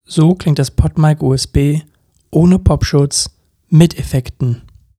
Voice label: Podmic USB pop; no fx